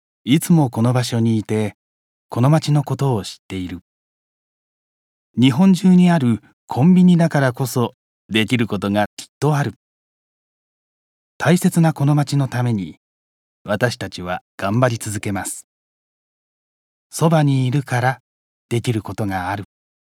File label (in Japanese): コンビニCM